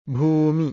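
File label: "bhūmi", Pali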